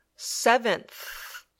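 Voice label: "seventh", English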